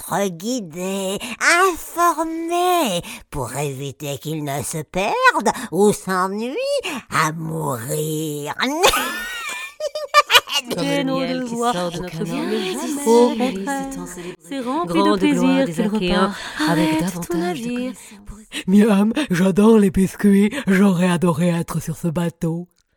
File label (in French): voix jeu vidéo film d'animation